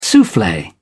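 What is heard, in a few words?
"souffle", British English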